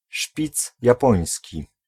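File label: "szpic japoński", Polish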